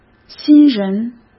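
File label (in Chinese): "新人" Mandarin Chinese; hsk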